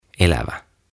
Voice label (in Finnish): "Elävä" Alive